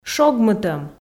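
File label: "шогмытӧм", Komi, female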